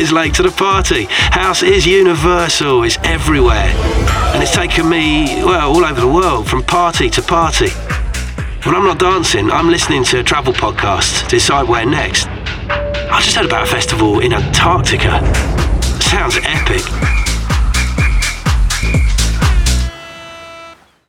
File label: male english music commercial; english southern accents; amazon